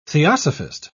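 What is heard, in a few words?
"theosophist", British English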